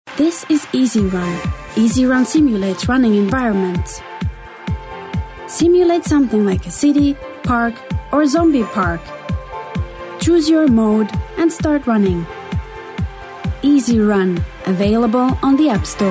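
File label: Finnish, female, English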